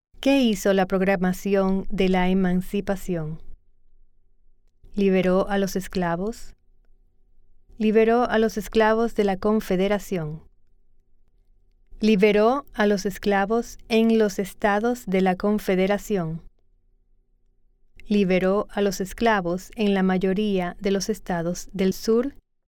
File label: Spanish